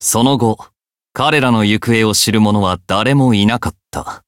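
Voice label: Voice Japanese; tales; gameover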